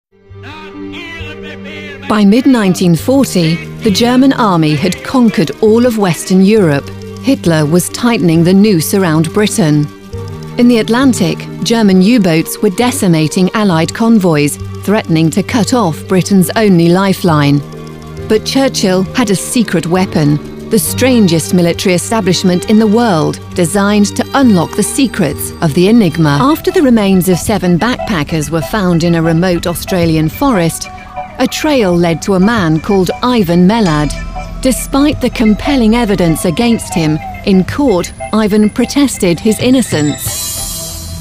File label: NARRATIVE